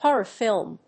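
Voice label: "Horror film", English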